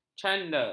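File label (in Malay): "canda", Malay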